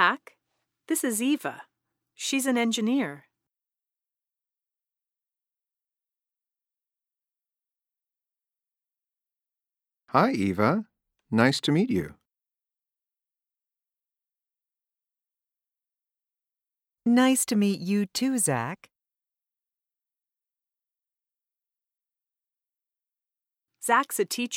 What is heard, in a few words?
Pronunciation Practice; Connectivity Foundation